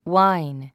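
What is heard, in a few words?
"wine", English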